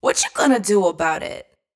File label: What Chu Gonna Do wet, adlib; Wet Vocals; Adlibs